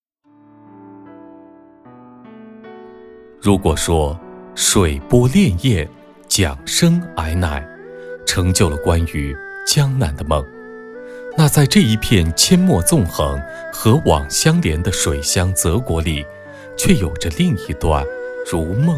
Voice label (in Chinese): 男 专题 讲述风格; 常州春秋乐园